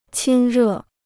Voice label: "亲热", Chinese